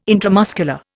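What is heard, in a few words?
"intramuscular", Indian English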